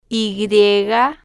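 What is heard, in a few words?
"y", spanish, female, slow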